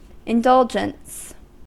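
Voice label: "indulgence", US English; pronunciations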